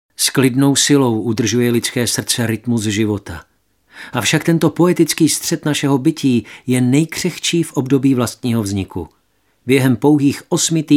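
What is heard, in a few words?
male Czech documentary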